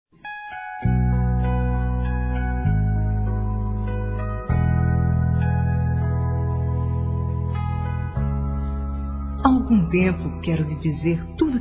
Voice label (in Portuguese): CONQUISTA F; Telemensagens; Conquistas Cantadas paqueras; fem; Telemensagens 2017